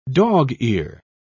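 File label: "dog-ear", British English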